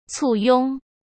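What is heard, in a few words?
"簇拥", Mandarin Chinese; chinese-flashcards; hsk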